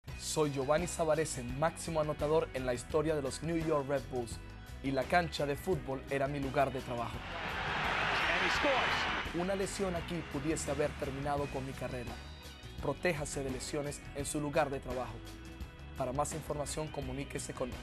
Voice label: psa spanish